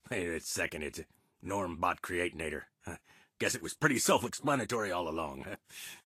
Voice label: English Voice